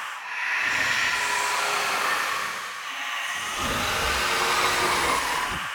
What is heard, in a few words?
dark whisper